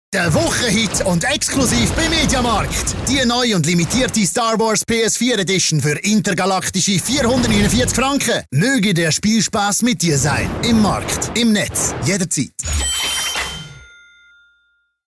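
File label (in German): Werbung Dynamisch Dialekt Schweitzerdeutsch; Fremdtake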